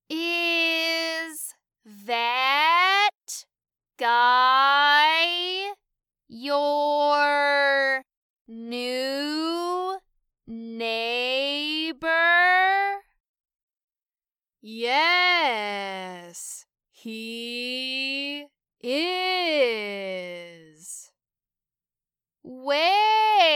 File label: Dialogue Slow